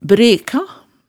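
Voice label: "breka", Hemsedal dialect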